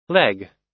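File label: "leg", US English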